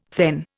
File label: "thegn", Indian